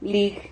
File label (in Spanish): "lig", Silabas